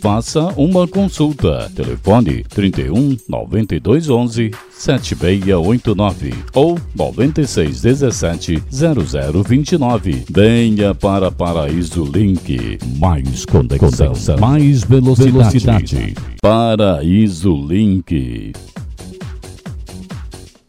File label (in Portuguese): spot voz provedor internet; PARAISO LINK